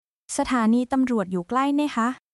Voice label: Thai, basic thai phrases

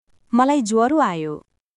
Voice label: Nepali, basic phrases

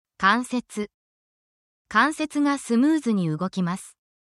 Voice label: japanese body parts

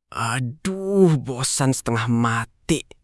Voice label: indonesia, negative phrases

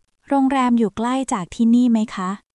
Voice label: Thai, basic thai phrases

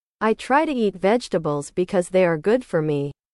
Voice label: english

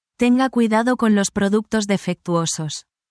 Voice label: spanish, spanish phrases

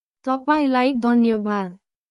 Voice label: Nepali, thank you in nepali

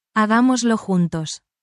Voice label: spanish, spanish phrases

